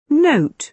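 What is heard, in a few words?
"note", British English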